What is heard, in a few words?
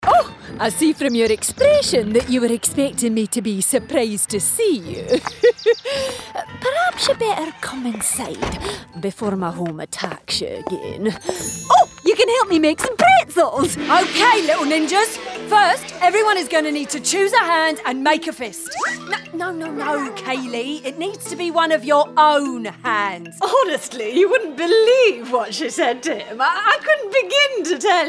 Animation Demo